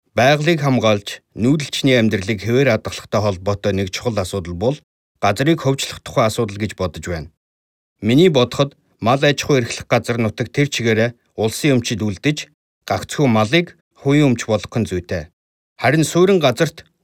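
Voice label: Mongolian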